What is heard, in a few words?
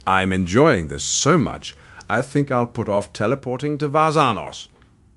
happy, speech; zanthor; fertig classic games